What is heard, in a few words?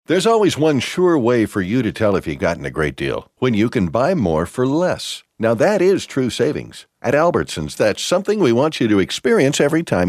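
American English, male, voice over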